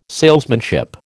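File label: "salesmanship", American English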